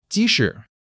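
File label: "ji2 shi3" Chinese; 基础汉语40课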